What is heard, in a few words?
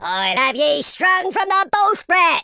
i'll get you, speech, Pirate